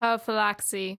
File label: female garrison, greek